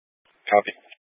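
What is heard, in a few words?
Police 1 Dispatch, Scanner audio recording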